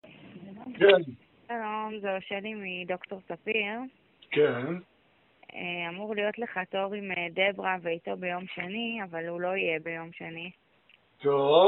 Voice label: call recording